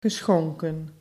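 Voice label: "geschonken", Dutch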